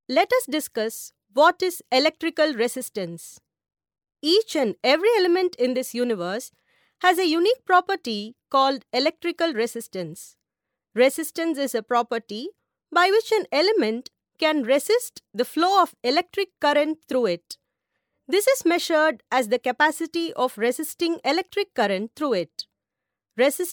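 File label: Elearn English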